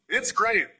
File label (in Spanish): Voice Acting es M